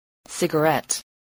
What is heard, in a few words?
"cigarette", English, voice